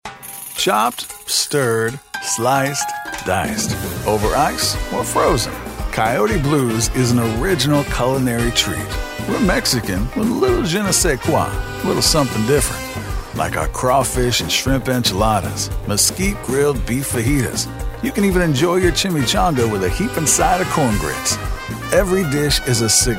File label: Restaurant Down Home Bluesy